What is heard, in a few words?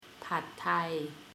"Pad Thai", Thai